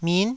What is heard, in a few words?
"min9", taiwanese